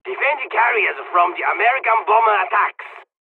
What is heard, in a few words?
defend, dialogs serbian; midway; War Thunder